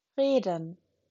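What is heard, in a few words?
"reden", German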